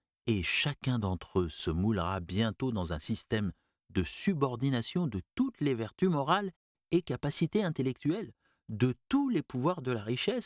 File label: Podcast